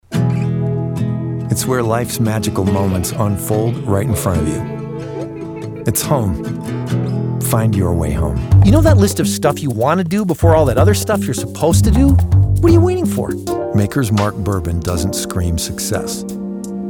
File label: male commercial